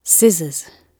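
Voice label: "scissors" spoken, British English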